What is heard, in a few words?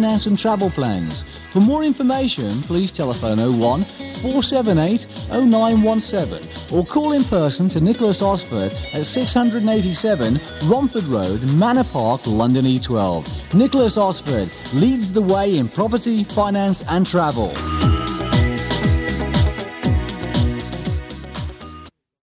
Holiday Advert; Horizon Radio Adverts 1981 to 1985